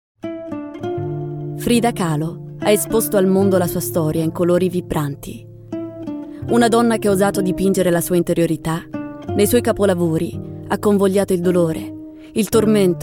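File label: Italian, Documentary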